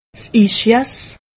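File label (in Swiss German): "ischias", Alsatian; medecine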